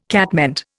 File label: "catmint", Indian